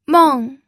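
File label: "meng", fourth tone, pinyin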